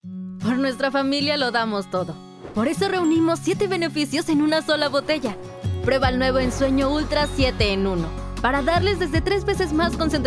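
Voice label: comercial, Mexican Spanish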